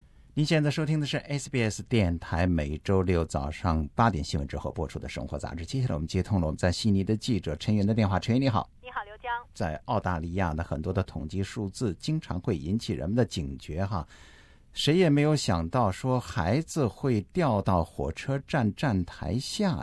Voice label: mandarin; SBS 普通话电台; 生活杂志：悉尼儿童乘车安全引起关注